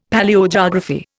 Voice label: "Palaeogeography", Indian; Dictionary